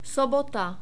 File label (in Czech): "sobota", Czech